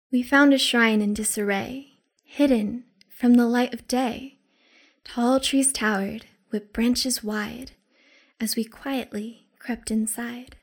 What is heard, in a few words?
Poetry; Shrine